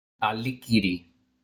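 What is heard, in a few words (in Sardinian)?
"Allichirì", Sardinian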